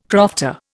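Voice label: "crofter", Indian English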